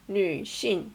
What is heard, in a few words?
Female